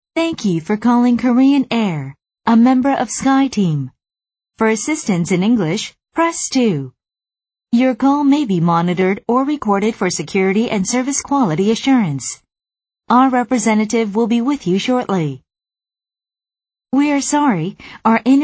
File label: American English, F